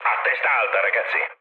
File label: near waypoint mood low, speech it; War Thunder